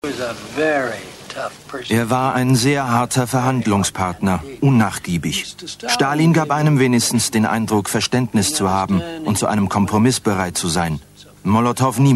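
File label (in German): sprachprobe deutsch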